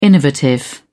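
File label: "innovative", British English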